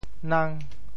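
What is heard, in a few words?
"nang5", Teochew; 潮州; 人丁稀微; 家族人丁单薄; 俗语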